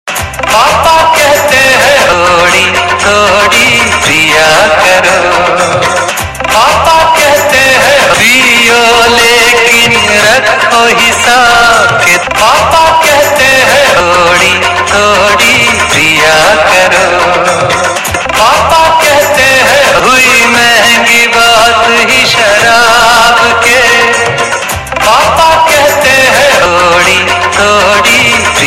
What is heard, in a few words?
papa nahin kehte hai, Hindi Funny Ringtones